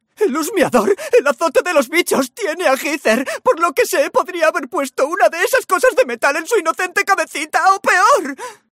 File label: MALE, videogames